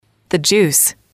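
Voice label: "the juice", English, female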